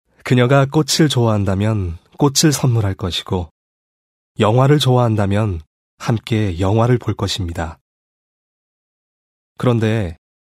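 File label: Korean, male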